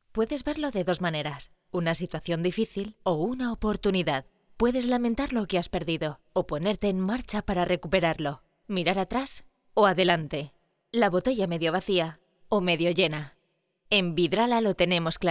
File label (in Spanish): Demo corporativo